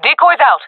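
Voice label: throwing decoy, female voice; player vo; Counter Strike Model Pack; CS:GO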